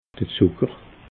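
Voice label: "zucker", Alsatian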